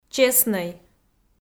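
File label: "чеснӧй", Komi, female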